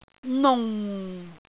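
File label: "nung4", Cantonese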